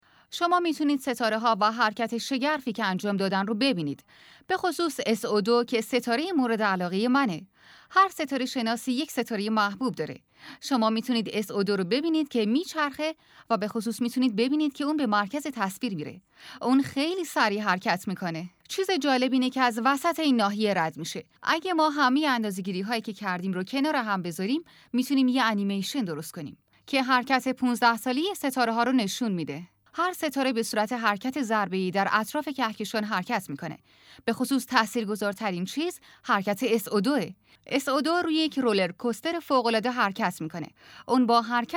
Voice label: documentary